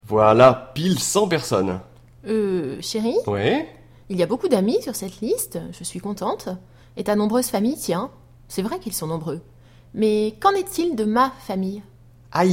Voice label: dialogue, French